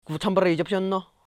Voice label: Khu jam phia phe je ramjio?, Lotha